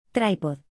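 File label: "tripod", English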